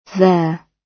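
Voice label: "their", British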